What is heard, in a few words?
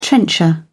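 "trencher", British English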